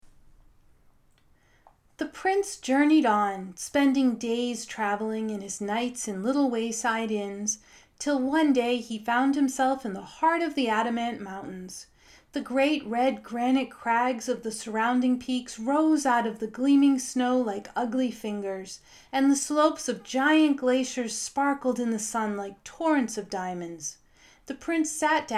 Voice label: Audiobook; Fiction; Professional voice acting